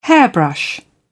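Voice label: "hairbrush", British English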